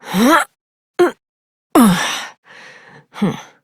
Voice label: Horse Dismount Adult, Dialog; Duviri

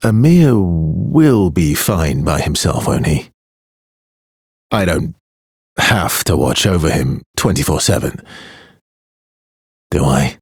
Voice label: Ambient Barks, en; Warframe; Mall Romance; Arthur

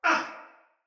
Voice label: Pain, Soldier Male; Sinecure Soldier Male A

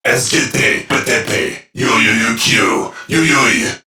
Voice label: Patrol Crewmen, Dialog, Barks

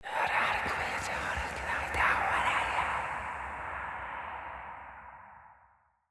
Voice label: Bb Whis Circade en, Dialog; Encounters

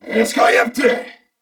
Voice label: Surprised, Corpus Venus Male; Dialog; Barks; Battle; Warframe; CorpusVenusMaleA

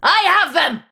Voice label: Player Spotted Ranged, Battle Barks; Tau; Tau Dax

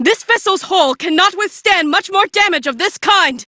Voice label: Female; Warframe; Railjack; Cephalon Suda

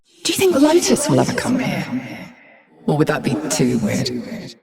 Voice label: Ambient Barks, en

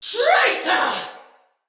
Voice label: Attack, Male, en; Battle; Barks; Dialog; Sinecure Banneret

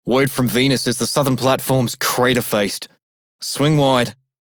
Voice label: Male Radio, en; Dialog; Warframe; Solaris Venus

